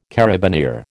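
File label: "carabineer", American English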